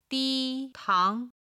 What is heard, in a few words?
"di1 tang2", Chinese